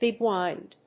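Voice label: "big wind", English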